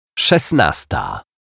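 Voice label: "szesnasta", Polish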